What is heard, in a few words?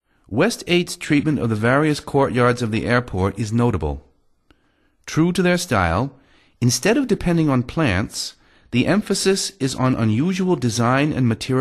documentary, english